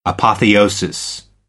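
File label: "apotheosis", American English; AmericanBritish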